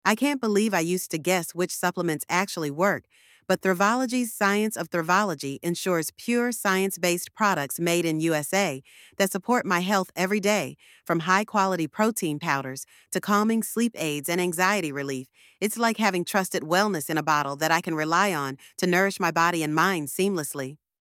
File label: voiceover; Science-Based Wellness Insights; Thrivology LLC; Explore how Thrivology's 'Science of Thrivology' commitment ensures pure, high-quality sup; Kaylin AI